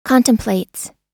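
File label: "contemplates", US English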